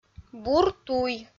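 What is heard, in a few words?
"бур туй", Komi-Zyrian, female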